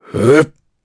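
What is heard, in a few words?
Casting, Japanese; Kaulah; kingsraid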